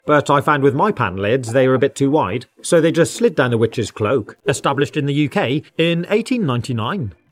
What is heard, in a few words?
British English UK Test